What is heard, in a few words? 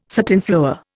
"satinflower", Indian